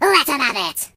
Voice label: Watch this, Pirate voice

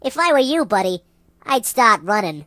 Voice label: "You'll regret that", Mobster; HedgewarsMobile

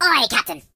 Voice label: Yessir, voices, Pirate; hedgewars

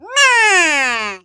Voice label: Firepunch, Default ru; hedgewars

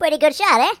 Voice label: "Excellent", Mobster

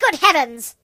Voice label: "What the", British; hedgewars

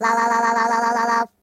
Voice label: "Stupid", Robot; hedgewars

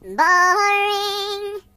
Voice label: "Boring", voices, Singer; HedgewarsMobile

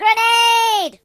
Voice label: "Grenade", British

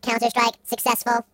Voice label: Revenge, Robot; hedgewars

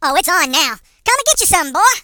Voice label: HillBilly, Youll regret that; hedgewars

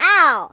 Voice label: "Ouch", British; hedgewars

